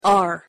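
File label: "r" pronunciation English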